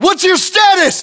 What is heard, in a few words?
status check, us